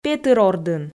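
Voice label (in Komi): "петыр ордын", Komi, female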